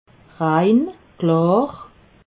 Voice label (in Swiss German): "rein", alsace